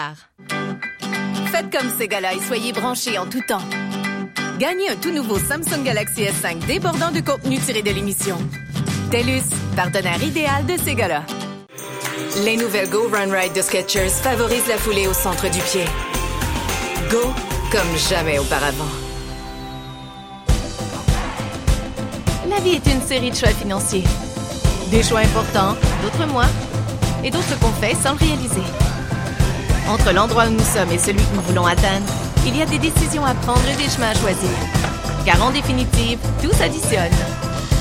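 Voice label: French Canadian, female, commercial; voice over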